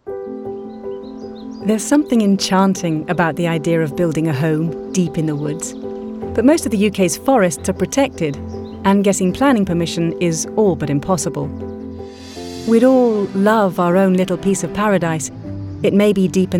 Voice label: documentary